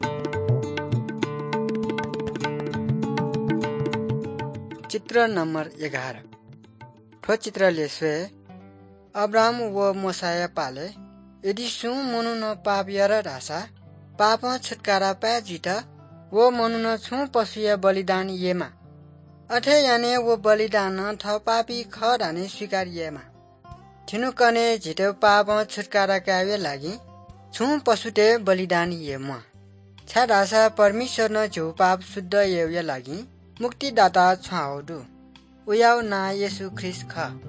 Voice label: good news newari balami